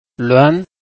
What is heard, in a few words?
"lun2", Chinese